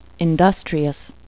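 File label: "industrious", English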